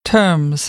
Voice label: "terms", American, English; ɝː - ɜː